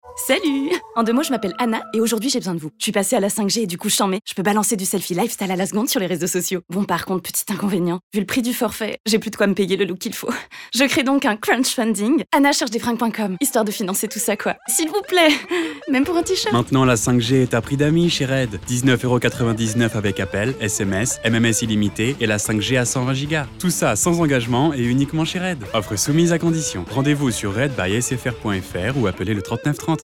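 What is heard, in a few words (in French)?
VOIX OFF - Pub, comédienne; SFR train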